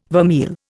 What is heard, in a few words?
"vermeil", Indian